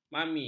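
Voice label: "mami", Malay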